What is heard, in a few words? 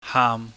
"haam", chinese